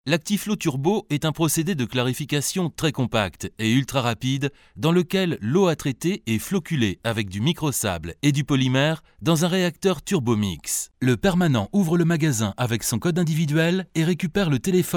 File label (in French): commentaires